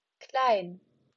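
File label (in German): "klein", German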